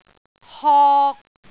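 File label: "hok3", Cantonese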